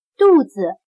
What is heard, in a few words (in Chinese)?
"肚子", 汉语